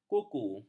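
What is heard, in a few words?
"kuku", Malay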